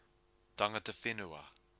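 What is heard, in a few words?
"tangata whenua", Māori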